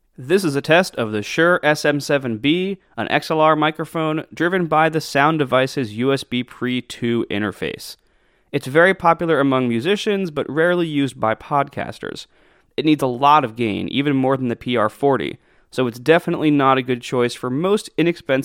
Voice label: SM7B USBPre, microphones